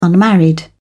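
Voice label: "unmarried", English, British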